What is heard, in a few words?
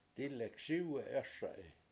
"dIlek SIwe7 ASa7i" duck will land on water, Washo